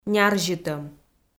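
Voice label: "нярзьытӧм" Komi-Zyrian, female; kpv audio dict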